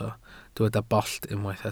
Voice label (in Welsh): "bollt", Welsh